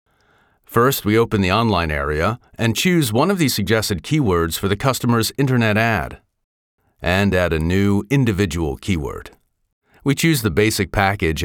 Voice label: e-learning

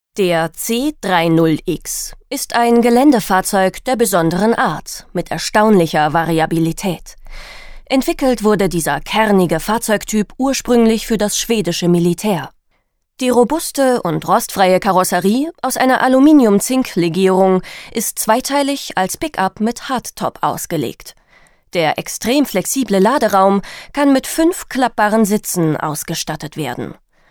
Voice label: industrial narrative

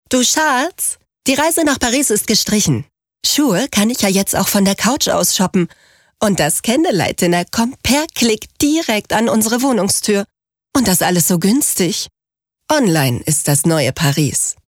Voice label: radiospot; paris

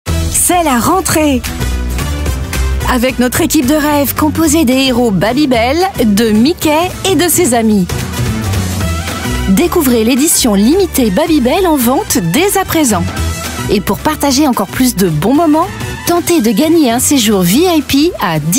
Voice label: commercial; babybel; disney

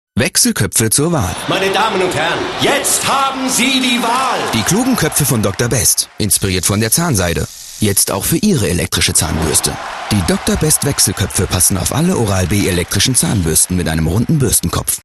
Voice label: tv spot; dr best

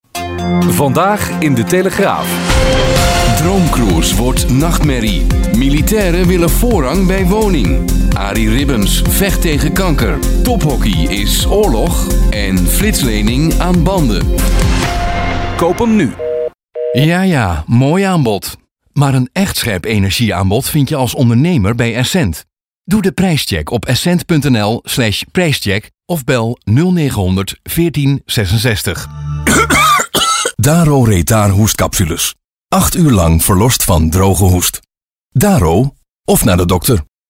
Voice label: commercials

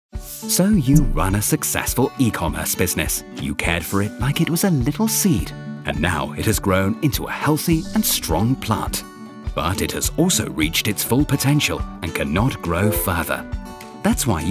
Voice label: explanatory film; byrd

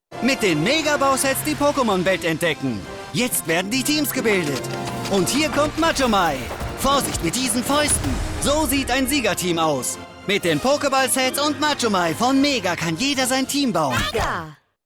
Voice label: spot; mattel mega

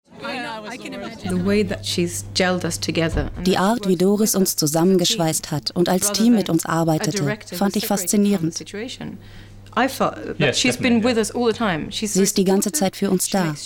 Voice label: voice over

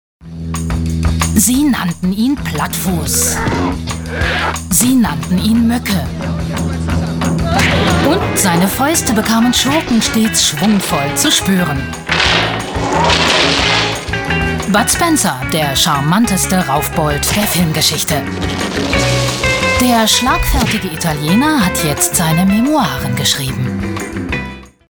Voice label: trailer

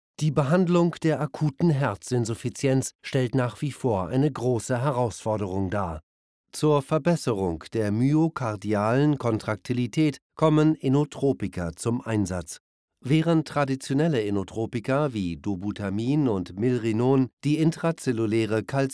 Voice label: med film german

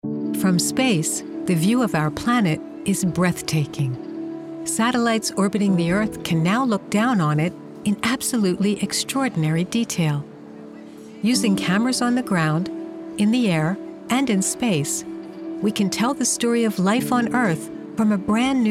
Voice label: documentary

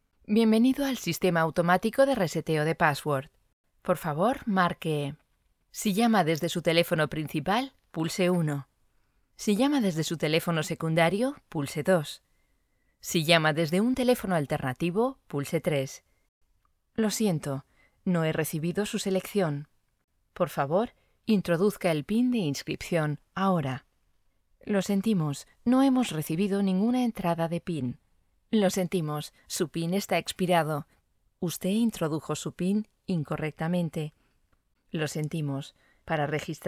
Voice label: female

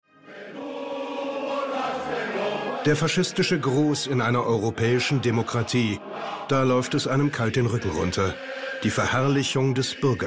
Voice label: voiceover; spanien

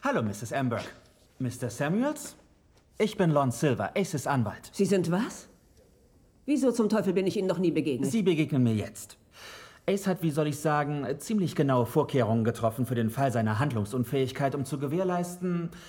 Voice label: hollywood voice sample

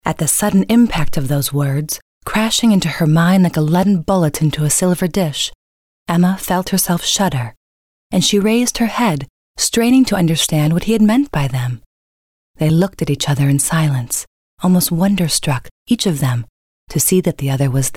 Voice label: hotel audio book

